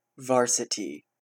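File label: "varsity", Canadian English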